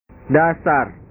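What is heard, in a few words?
"dasar", Indonesian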